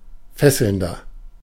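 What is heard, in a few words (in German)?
"fesselnder", German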